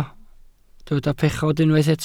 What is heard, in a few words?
"pechod", Welsh